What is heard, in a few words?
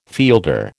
"fielder", American